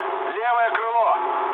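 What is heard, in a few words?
Russian left wing mood low, speech; War Thunder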